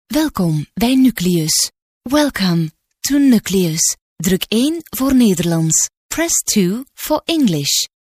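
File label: flemish female ivr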